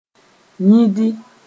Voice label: "yĩdi", Mooré